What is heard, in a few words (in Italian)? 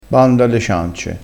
"ciance", crespinese